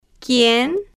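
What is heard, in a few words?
"quien", Spanish, slow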